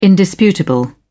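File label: "indisputable", British English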